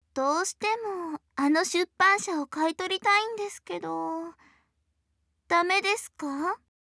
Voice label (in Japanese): おねだりボイス; 彩姫2